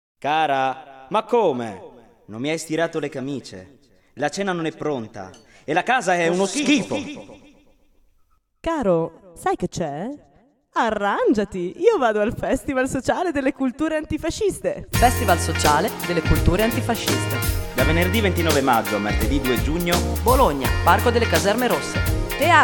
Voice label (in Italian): spot femminista; fest antifa 2009